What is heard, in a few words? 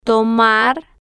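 "tomar", Spanish, female, slow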